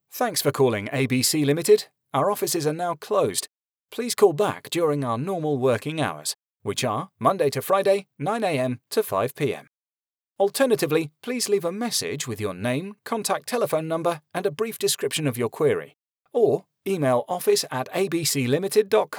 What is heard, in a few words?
Male Out of Hours Voicemail